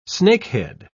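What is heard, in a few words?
"snakehead", British English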